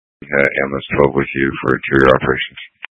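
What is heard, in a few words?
Incident Tactical 1, Scanner audio recording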